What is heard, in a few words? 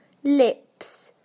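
"lips", British English; PictureDictionary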